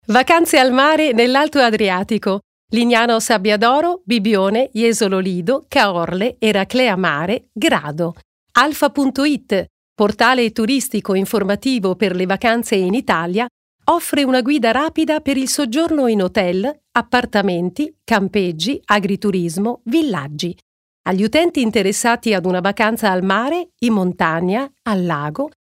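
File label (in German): Italian, Kommentar Lebendig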